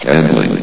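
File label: "handling", male synthesized voice; vox; source engine; hl1; video games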